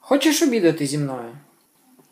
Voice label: dialogue, Ukrainian